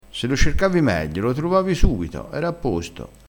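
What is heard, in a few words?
"a posto", Italian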